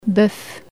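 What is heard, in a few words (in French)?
"boeuf" French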